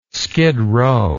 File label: "skid row", words